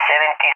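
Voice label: "70", English, Spain; Numbers; DCS; Allied Flight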